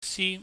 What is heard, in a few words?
"si", pingyam